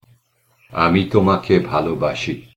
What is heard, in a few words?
I love you in Bangla